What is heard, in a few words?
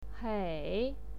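"hei", Mandarin Chinese pinyin, third tone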